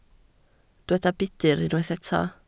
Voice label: "budr", Welsh